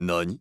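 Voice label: "nani", Japanese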